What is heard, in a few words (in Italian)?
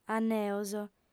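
"anneos", lingua sarda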